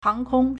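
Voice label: "hángkōng", Chinese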